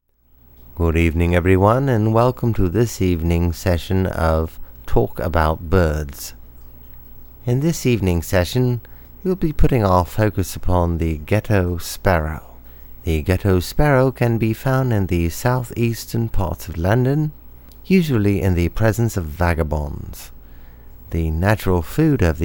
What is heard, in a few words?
British